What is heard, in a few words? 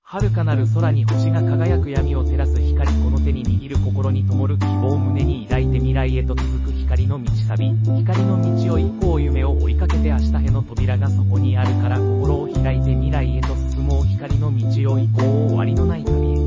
Male